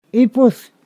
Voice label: "tail", Ponosakan